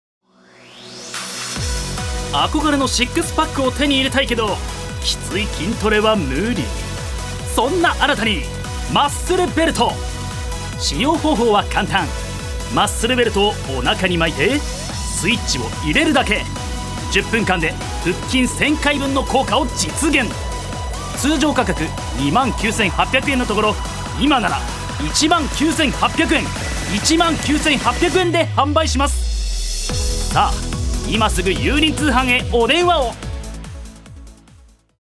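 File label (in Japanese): ナレーション, 男性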